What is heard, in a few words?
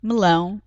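"melao", European Portuguese; fruta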